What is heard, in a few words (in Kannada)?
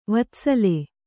"ವತ್ಸಲ", Kannada